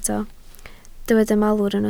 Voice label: "malwr", Welsh

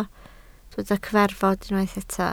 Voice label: "cyfarfod", Welsh

